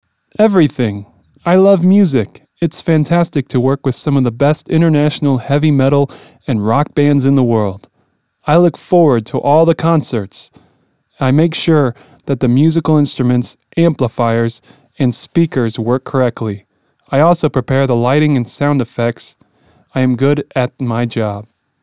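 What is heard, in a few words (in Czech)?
angl, English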